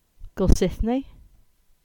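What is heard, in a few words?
English; Goldsithney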